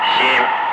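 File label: "5", spoken; Ka-50; Wingman; Digits; DCS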